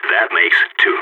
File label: two enemies left, balkan; vo